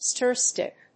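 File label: "stir stick", English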